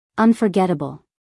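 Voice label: "unforgettable", American English, female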